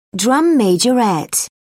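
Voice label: "drum majorette", British English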